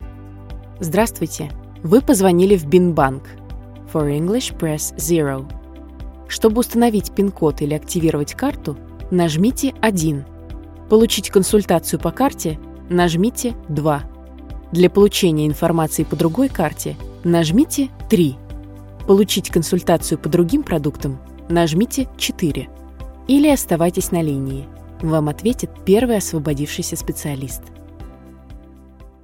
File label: rus IVR